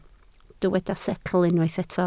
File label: "setl", Welsh